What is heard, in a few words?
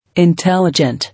"Intelligent", English